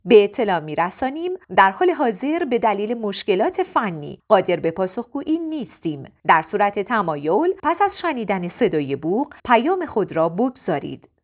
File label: voice women